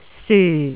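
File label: "syu4", Cantonese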